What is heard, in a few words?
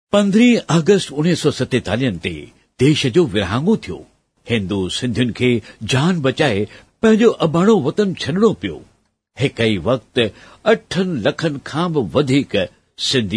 Sindhi Male